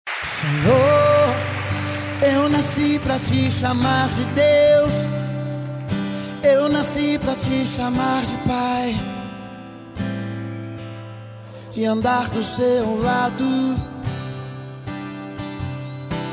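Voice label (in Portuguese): Aniversário 15 Anos Evangélico Masculino, telemensagem; telemensagem evangelica aniversario 15 anos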